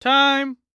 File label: Sports Human Voice Male Young Man Baseball Umpire Time